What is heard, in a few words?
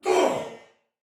Block Soldier Male, Battle; Dialog; Barks; Sinecure